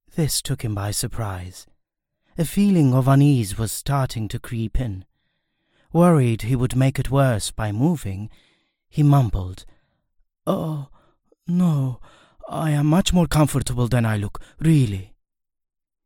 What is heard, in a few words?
english commercial